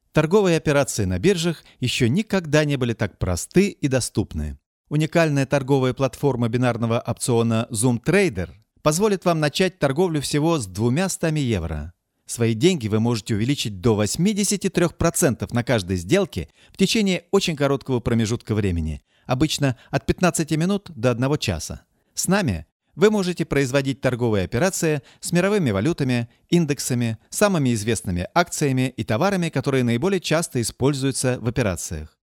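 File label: Russian Russia male upbeat; russian voice over talent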